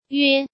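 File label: "Yuē", Chinese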